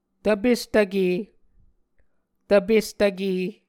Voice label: "tvpestvke", Muscogee